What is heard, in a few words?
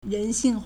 "ren2 xing4", Chinese